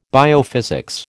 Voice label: "biophysics", American